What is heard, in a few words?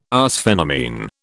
"arsphenamine", British